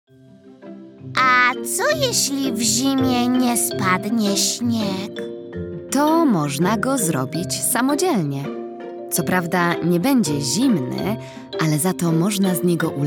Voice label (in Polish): narracja; czasopismo mis; zima; rozmowa